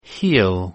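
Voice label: "he'll", English contraction; Contractions Pronunciation Practice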